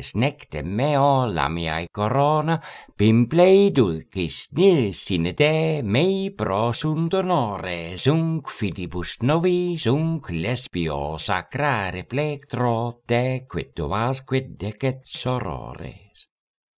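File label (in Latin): Horatii carmina quae voce canora pronuntiat; Musis amicus tristitiam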